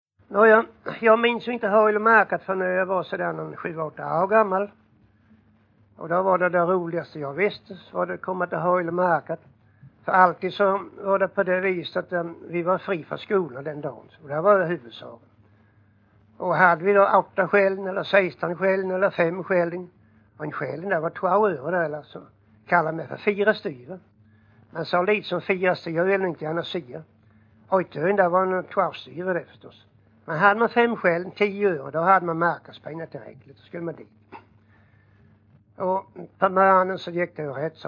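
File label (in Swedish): Jämshög Blekinge, dialekter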